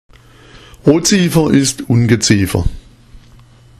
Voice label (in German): "oziefer", schwaebisch; O’Ziefer ist Ungeziefer